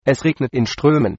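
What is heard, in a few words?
rain, German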